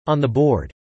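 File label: "on the board" spoken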